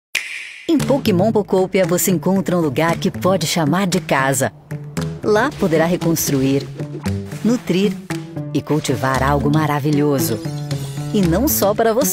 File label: portuguese brazilian commercial, cute